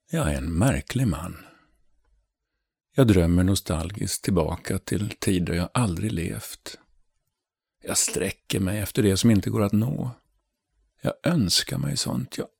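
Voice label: Swedish male voice over, character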